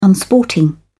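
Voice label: "unsporting", British English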